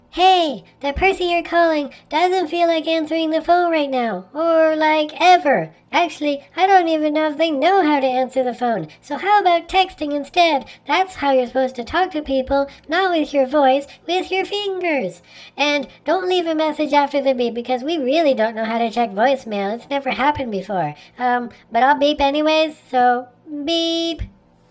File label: voicemail